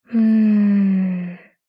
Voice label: doubt